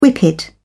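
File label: "whippet", British English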